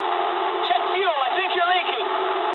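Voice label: English tank damaged mood low; speech; War Thunder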